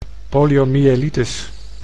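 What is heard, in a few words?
"poliomyelitis", Dutch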